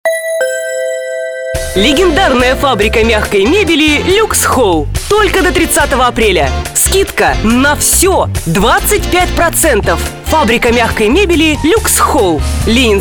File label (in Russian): Mebel informatsionnyiy zhenskiy